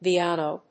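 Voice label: "Viano", English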